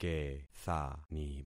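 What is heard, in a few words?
"kethani", concatenated syllables; Affixing experiment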